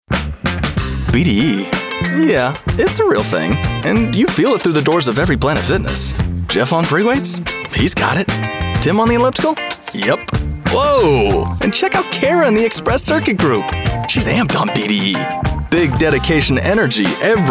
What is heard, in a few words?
Commercial